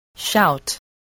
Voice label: "shout", spelling